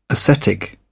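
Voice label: "ascetic", British English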